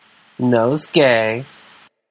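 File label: "nosegay", US English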